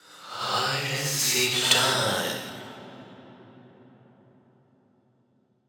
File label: Indistinct Voice; Hide and seek time